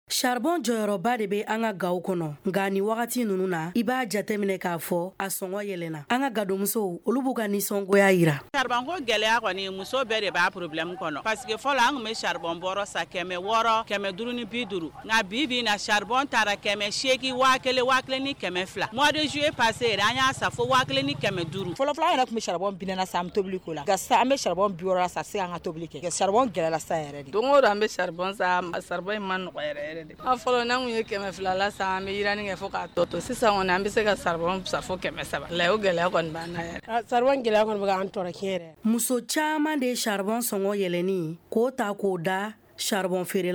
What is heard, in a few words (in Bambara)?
magazine bamanankan